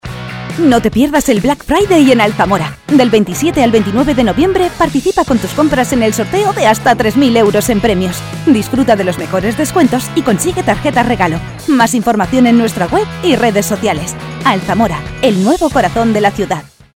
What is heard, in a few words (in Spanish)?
MUJER